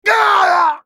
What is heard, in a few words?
dying, en US; Talon